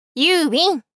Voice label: you Win! 大人 中音域

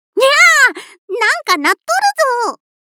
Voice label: 電話受信, システムボイス; くずは

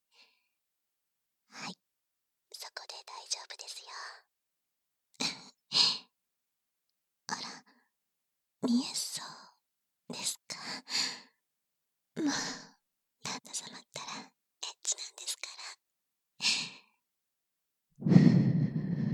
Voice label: 耳かき左右＆耳産毛処理（囁き重視編集 効果音なし）

